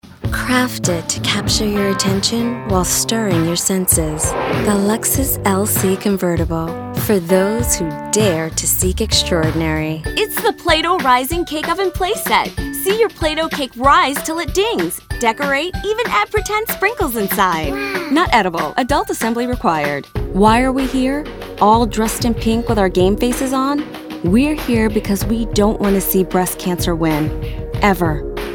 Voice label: Commercial